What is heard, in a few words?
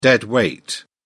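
"dead weight", en, British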